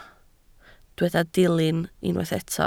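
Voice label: "dilyn" Welsh, spoken word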